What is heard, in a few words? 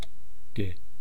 "ti", East Cree syllabics